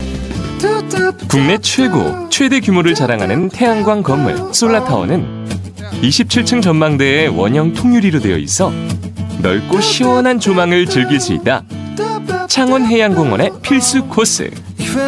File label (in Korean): 해설 밝은 20대; mbc 푸드앤조이